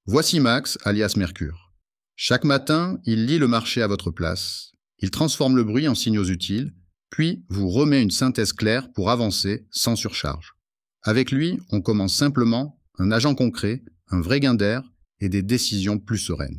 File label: voiceover